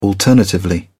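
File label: "alternatively", British English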